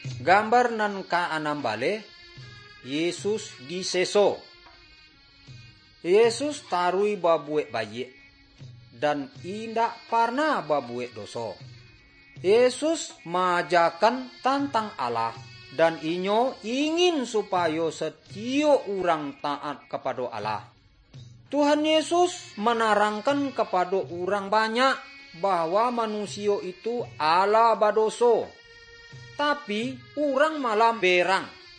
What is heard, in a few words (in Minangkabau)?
good news minangkabau